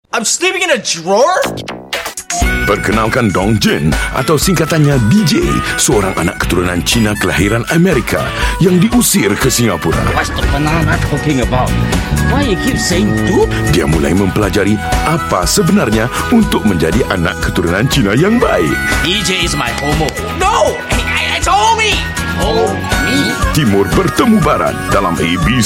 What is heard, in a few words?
Malay